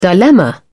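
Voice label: "dilemma", British English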